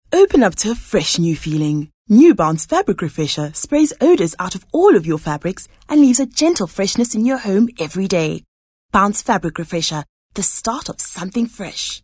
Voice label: English bright